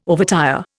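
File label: "overtire", Indian English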